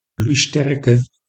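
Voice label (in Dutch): "loeisterke", Dutch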